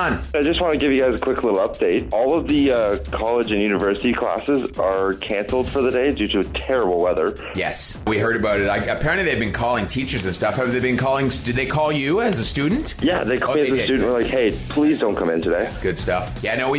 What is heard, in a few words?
Morning Show Promo; ROCK